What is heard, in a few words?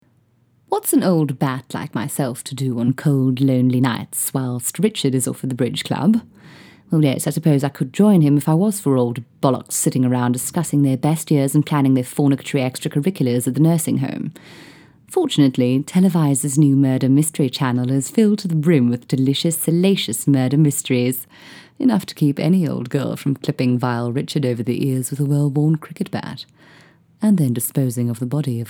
Voice English UK Conversational